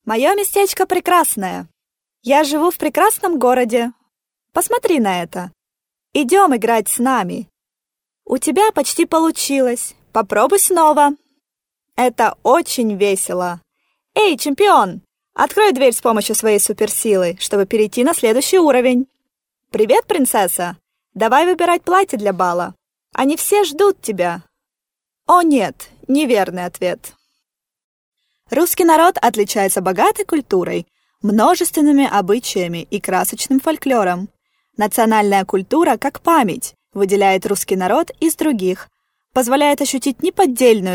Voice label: Female Russian Toys